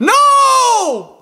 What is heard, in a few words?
"no" female anger; Voice; expletives